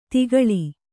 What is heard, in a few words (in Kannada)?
"ತಗ್ಗಾಳು", Kannada